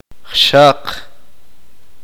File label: uspanteko; hoja